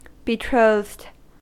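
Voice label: "betrothed", American English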